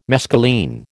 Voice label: "mescaline", American